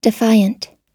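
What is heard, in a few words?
"defiant", American English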